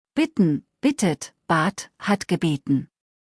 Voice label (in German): "bitten", Deutsch; verben kurzliste